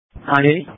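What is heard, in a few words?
Police Patrol (Dispatch)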